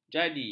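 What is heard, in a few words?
"jadi", Malay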